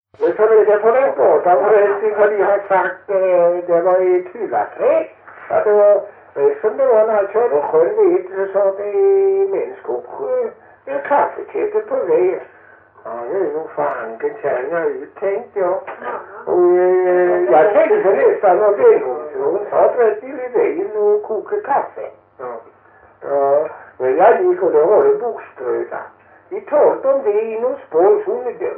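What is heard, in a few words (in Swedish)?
Hulterstad Öland, dialekter svenska sagor; Folkminnen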